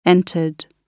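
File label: "entered", British English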